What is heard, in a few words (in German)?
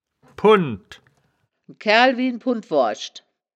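"pund", wittlicher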